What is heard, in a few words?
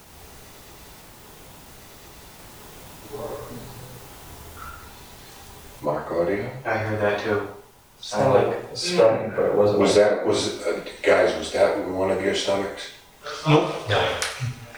Male